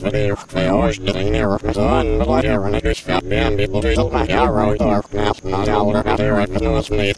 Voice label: alien chatter; vendetta